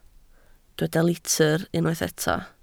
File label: "litr", Welsh